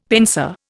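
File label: "pensée", Indian English; Dictionary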